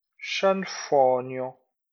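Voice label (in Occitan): "sanfonha", Limousin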